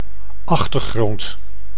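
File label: "achtergrond", Dutch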